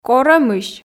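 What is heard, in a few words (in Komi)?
"... корӧмысь", Komi, female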